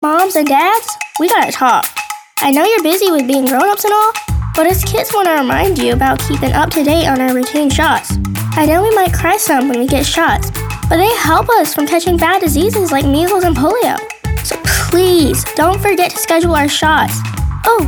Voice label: Kid Talk

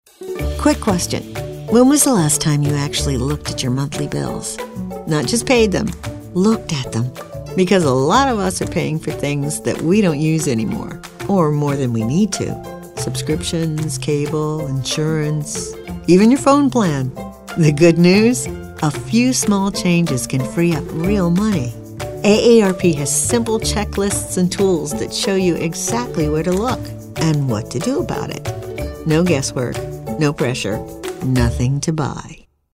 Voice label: friendly; AARP

